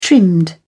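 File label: "trimmed", British English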